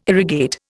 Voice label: "irrigate", Indian